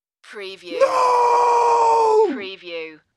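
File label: Man Scream No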